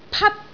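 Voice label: "pat1", Cantonese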